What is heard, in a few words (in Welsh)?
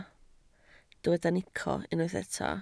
"nico", Welsh